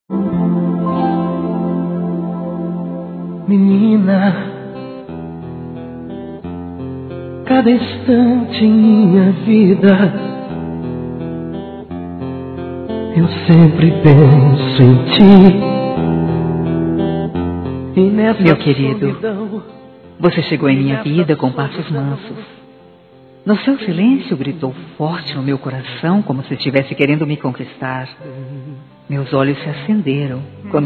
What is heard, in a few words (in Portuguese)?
Homenagem a Mãe Masculino, Só Voz; Telemensagens; Telemensagens 2017